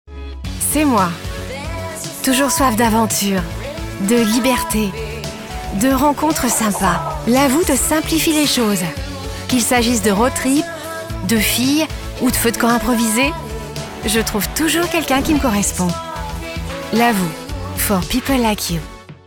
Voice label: FR Freundlich; Fremdtake

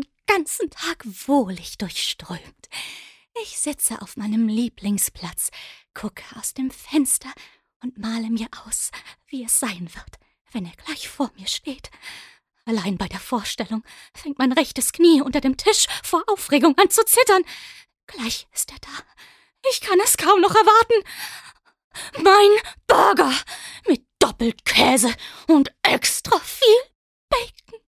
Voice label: Vorfreude Dynamisch

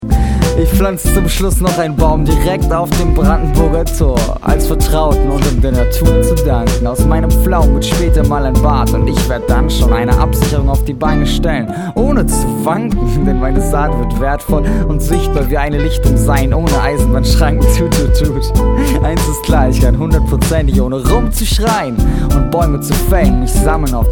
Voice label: Charge Rap

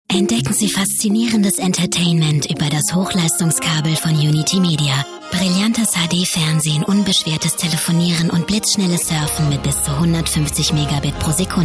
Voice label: Werbung, Freundlich

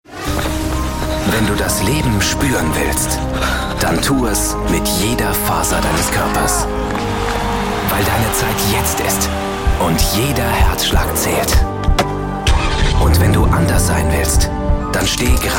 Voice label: Dynamisch

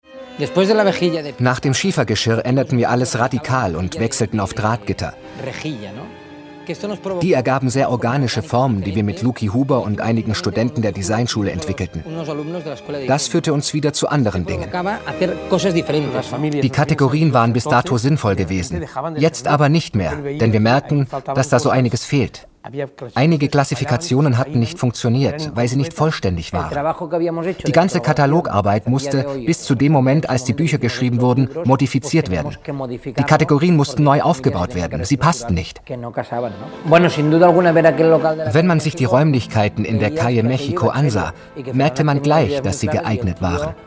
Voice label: Neutral

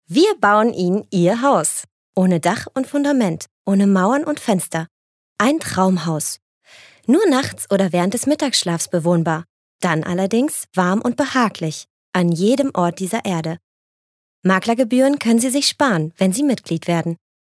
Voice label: Werbung Freundlich

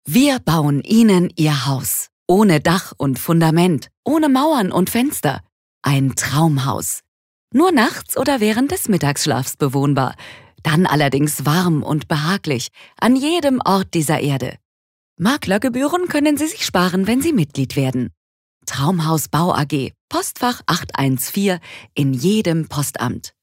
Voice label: Werbung Freundlich

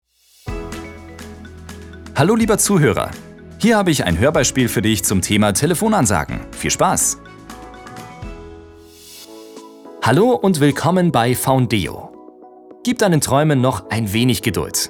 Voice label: Neutral

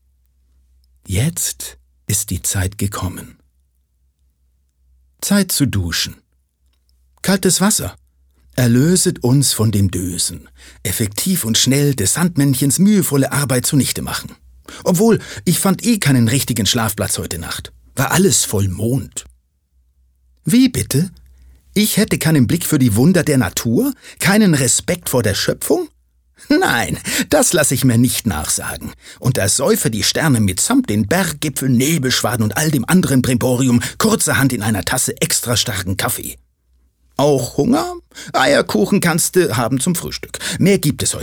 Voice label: Schauspiel Variabel

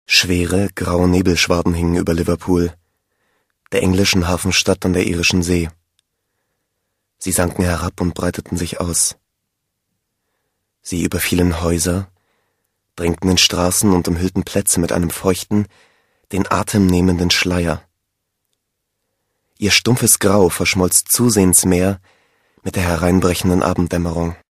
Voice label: Schauspiel Sanft

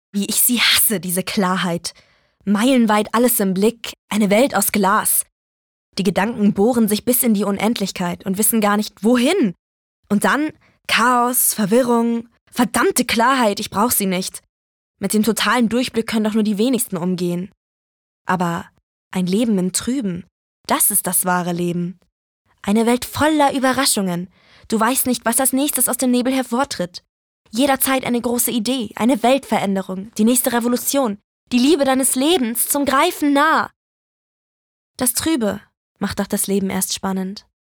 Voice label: Energisch; Klarheit

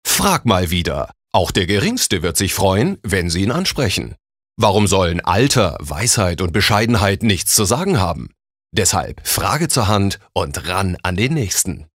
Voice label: Werbung Dynamisch

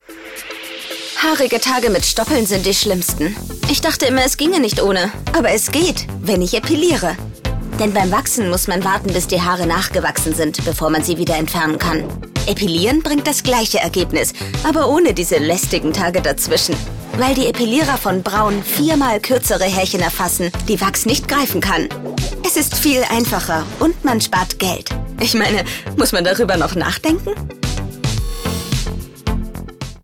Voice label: Eneregisch; Fremdtake

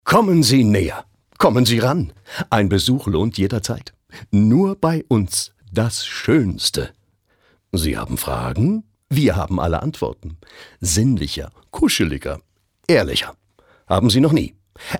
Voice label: Schauspiel Freundlich

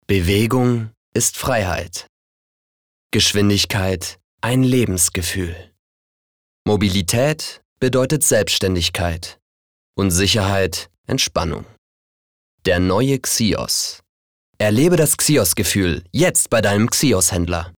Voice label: Sanft; Xios

